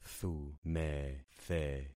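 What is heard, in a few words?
"thumethe", concatenated syllables; Affixing experiment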